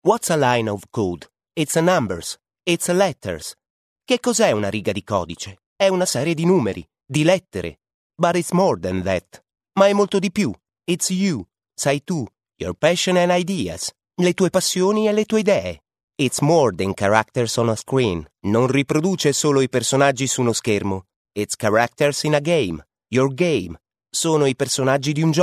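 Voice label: Italian English, male, narration; accent of italy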